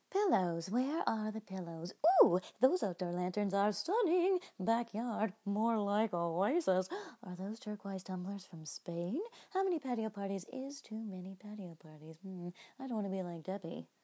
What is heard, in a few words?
Shopping Commerical Patio Parties